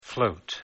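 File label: "float", English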